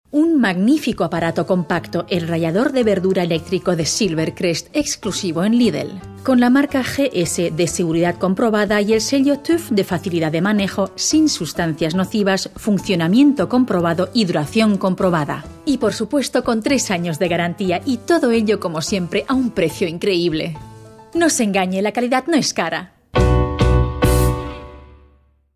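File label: Spanish, Werbung Freundlich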